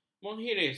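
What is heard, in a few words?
"menghiris", Malay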